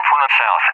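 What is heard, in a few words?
"from the south", English, UK; DCS; Allied Flight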